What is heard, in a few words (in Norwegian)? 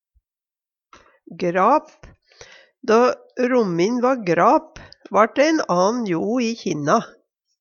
"grap", Numedalsmål; Tilleggsopplysningar jo' - lyd, ljod